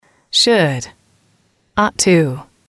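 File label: "should ought to", English, female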